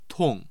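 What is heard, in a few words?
"tong4", Mandarin Chinese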